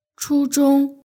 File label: "chuzhong", Chinese; gaokao